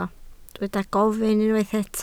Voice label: "gofyn", Welsh